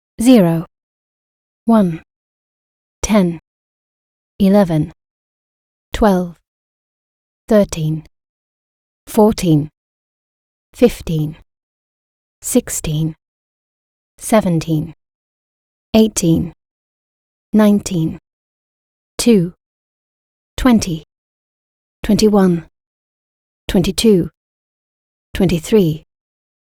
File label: en-gb numbers